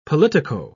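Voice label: "politico", British English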